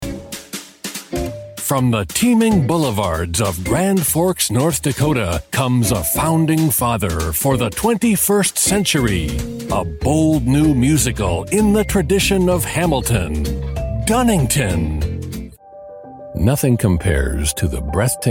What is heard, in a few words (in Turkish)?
reklam, Erkek, İngilizce (Amerikan); Kurumsal; Eğitim